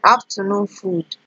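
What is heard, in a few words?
"afternoon food", Nigerian Pidgin, female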